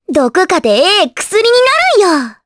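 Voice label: Vox Skill Japanese; Requina; kingsraid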